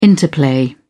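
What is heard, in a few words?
"interplay", British English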